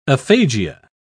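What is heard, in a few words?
"aphagia", British English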